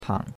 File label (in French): "pang3", chinois; Cours de Chinois